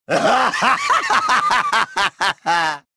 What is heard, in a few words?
gloat; reborn